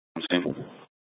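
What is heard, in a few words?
Gilbert, Fire Department, scanner; C4 Gilbert, QC, Superstition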